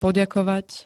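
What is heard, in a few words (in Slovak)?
"poďakovať", Slovenské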